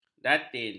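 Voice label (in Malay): "datin", Malay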